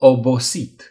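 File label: "tired", Romanian; gcompris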